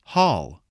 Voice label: "haul", English, spelling

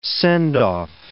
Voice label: "send-off", English

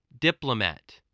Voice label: "diplomat", english spelling

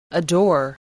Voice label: "adore", English voice

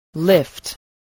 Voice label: "lift", spelling

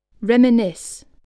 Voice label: "reminisce", spelling voice

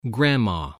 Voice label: "grandma", spelling

